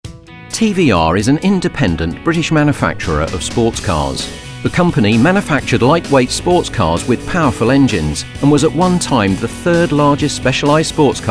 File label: Narrative